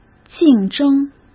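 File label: "竞争", Mandarin Chinese; chinese flashcards; hsk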